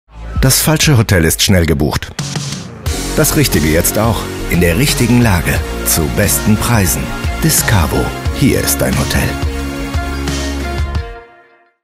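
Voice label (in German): Werbung, deutsch Männer; sprecher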